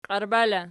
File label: "qiarba", Archi